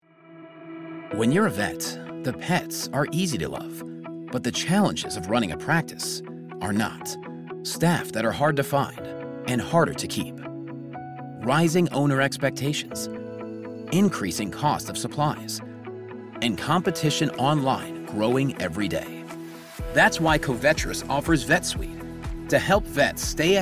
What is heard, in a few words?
Thoughtful; Covetrus